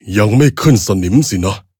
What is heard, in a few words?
victory, th; Cookie Run